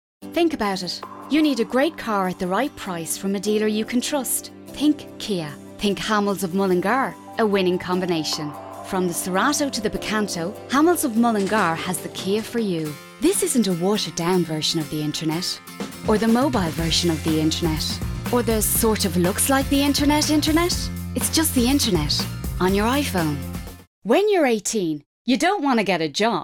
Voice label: VOICE OVER